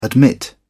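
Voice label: "admit", British English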